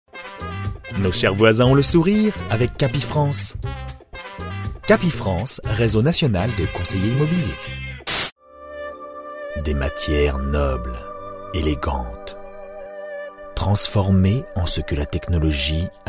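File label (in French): demo voix, voix off masculine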